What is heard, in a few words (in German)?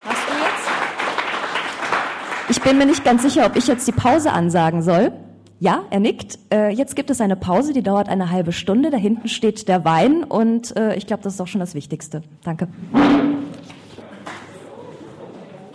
Pausenansage